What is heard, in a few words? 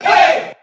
"hey", group of men